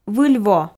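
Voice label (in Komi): "выль во", Komi-Zyrian, female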